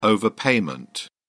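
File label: "overpayment", British English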